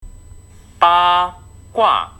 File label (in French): Prononciation ba gua